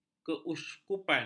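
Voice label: "keuskupan", Malay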